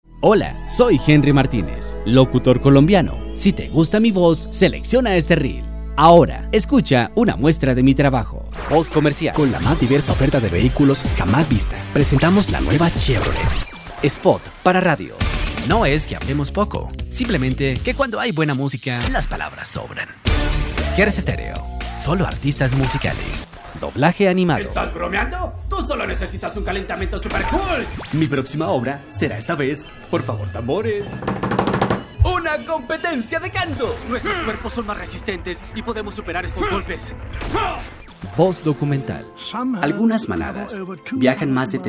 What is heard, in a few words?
Voice Over; Reel; Solo